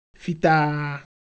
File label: Ewe, ideophones; Color Visual